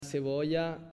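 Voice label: "Cebolla", Spanish, male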